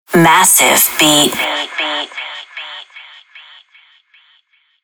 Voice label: sweeper massive beat female; killerintrosnet